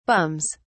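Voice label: "bums", British English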